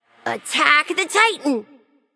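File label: Attack male Buffed; smite; nike; voicepack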